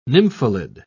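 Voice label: "nymphalid", English, British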